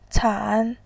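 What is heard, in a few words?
"can", Mandarin Chinese, tone 3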